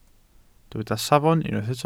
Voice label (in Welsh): "safon", Welsh